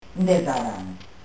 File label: "inde saranu", lingua